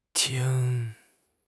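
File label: "qing1", Mandarin Chinese; HSK; HSK4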